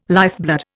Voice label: "lifeblood", Indian